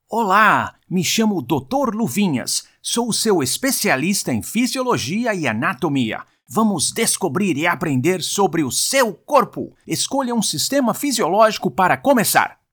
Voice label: portuguese brazilian e-learning

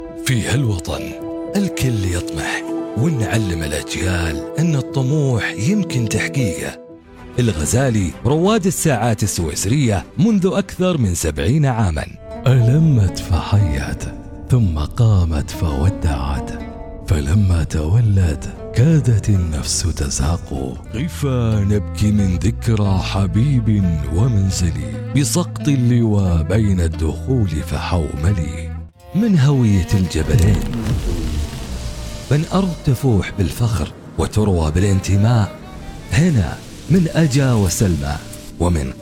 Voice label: arabic commercial

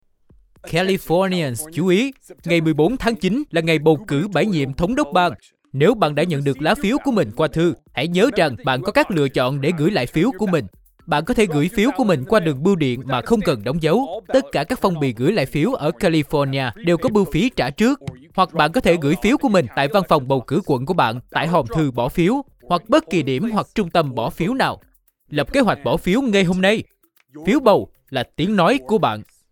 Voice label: Vietnamese political ads

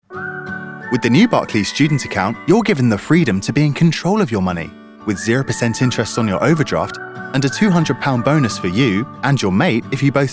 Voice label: english british commercial

